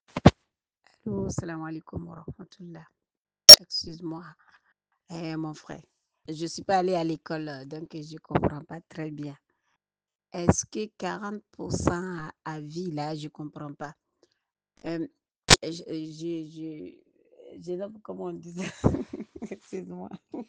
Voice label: voice message